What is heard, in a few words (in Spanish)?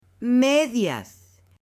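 "medias", Spanish, female; ROPA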